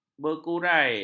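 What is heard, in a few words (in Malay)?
"berkurai", Malay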